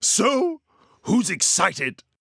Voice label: voice excited